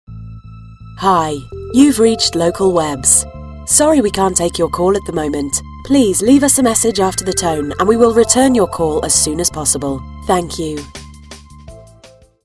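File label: uk, female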